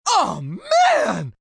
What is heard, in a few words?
Oh Man, Reaction, negative, male; Exclamation; Voice Box